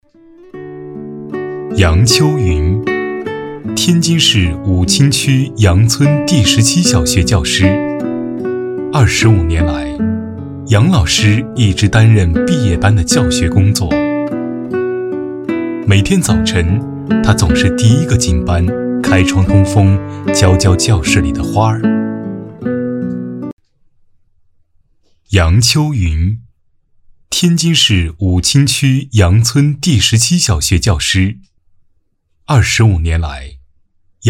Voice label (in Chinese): 男 专题 人物讲述